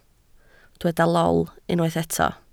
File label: "lol", Welsh